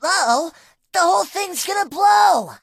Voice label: English Voice End; Disney; Phineas and Ferb; The Transportinators of Doooom

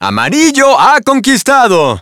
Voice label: Spanish - dialogue, Game Results Winner

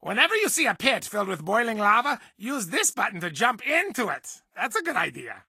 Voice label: English Voice

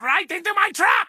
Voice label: English Voice

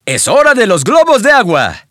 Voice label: Spanish - waterballoon welcome vo; dialogue; birthday party bash; wii

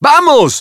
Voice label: Spanish - dialogue, Expressions; Puzzle Racer

